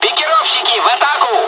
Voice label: japanese first wave, dialogs russian; War Thunder

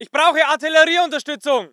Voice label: voice ger infantry; Red Orchestra 41-45

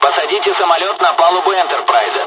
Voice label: landing, dialogs russian; midway us; War Thunder

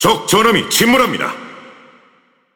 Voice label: Speech Destroy Enemy Battleship, KO

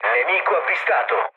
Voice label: enemy detected mood low, speech it; War Thunder

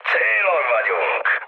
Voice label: near waypoint, mood high, speech hun; War Thunder

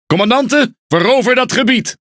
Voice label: NL Speech Quick Commands Enemy Point; World Of Warships

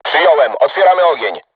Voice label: voice message art shoot, crew tanks polish

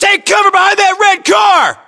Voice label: US order cover car red, battlechatter, english

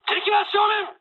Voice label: enemy detected mood high, speech jp; War Thunder

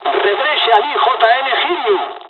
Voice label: evac, dialogs spanish; midway; War Thunder

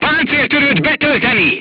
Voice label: voice message load AP, crew tanks hungarian

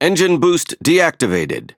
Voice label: Speech Consumable Forsage Normal, EN; World Of Warships

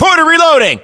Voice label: US inform reloading, battlechatter, english

